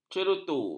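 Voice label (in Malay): "cerutu", Malay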